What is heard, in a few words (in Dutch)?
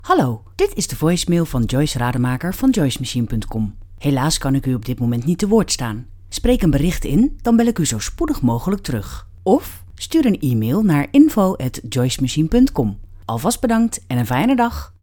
zakelijke voicemail voorbeeld